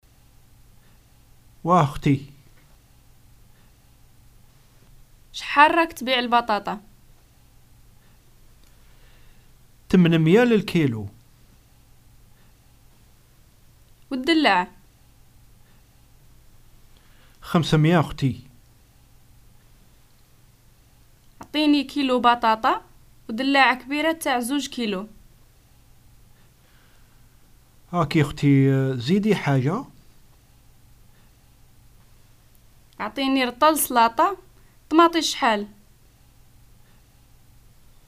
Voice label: purchase, arabic north african algerian dialogues

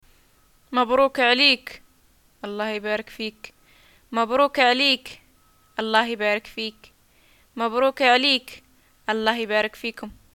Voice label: greeting marriage, arabic

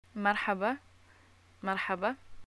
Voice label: greeting hello, arabic

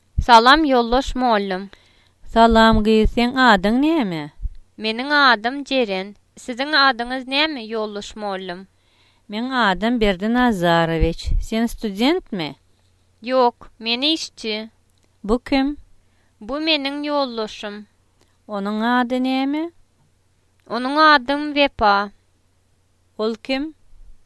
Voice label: dialogue, turkmen

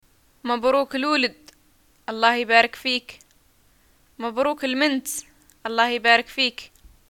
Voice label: greeting new baby, arabic, ws

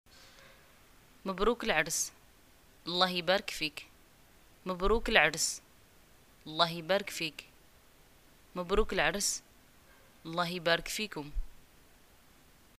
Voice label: greeting marriage, arabic

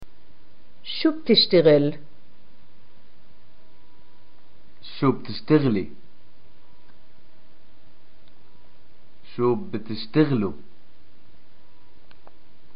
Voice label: what do you do, arabic, pl

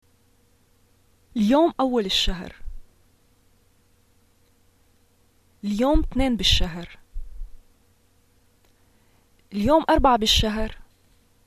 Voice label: first day of month, arabic levantine

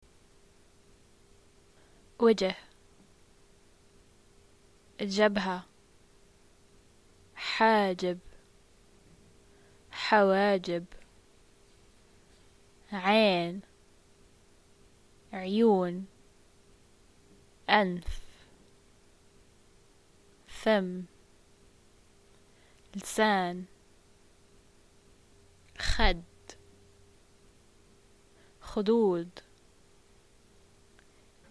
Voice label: face, arabic levantine

